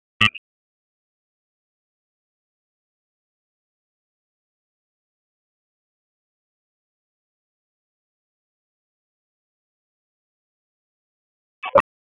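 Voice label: Raleigh Police North District Tactical, p25; wake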